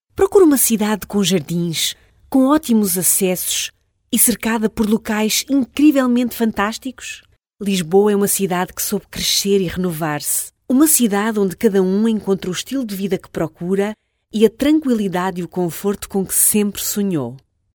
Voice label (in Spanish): Femenino